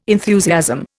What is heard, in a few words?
"enthusiasm", Indian